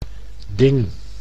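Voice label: "ding", Dutch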